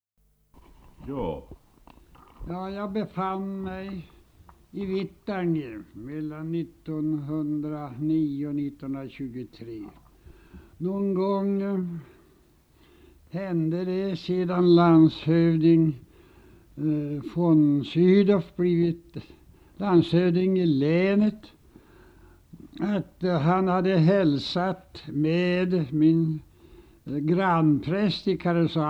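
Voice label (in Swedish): Sollefteå Ångermanland, dialekter; Folkminnen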